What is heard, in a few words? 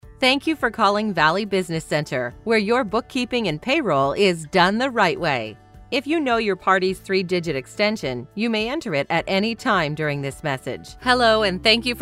english canadian ivr; professional English voice actor